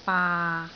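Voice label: "baa6", Cantonese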